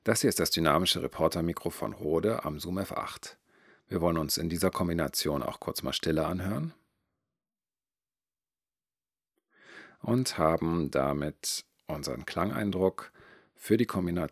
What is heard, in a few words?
Rode Reporter Zoom F8 denoise; dynamisch; Zoom F8 Audioaufnahmen mit MKE2 Lavalier (G3), Rode NTG2 und Reporter (dynamisch)